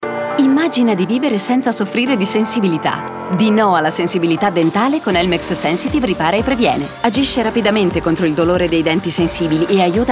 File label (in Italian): istituzionale brillante